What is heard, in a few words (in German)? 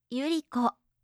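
"yuriko", japanische Mädchennamen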